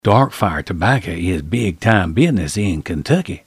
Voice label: southern